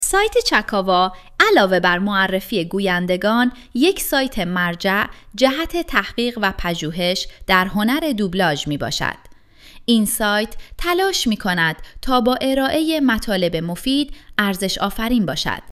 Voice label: female, eco narration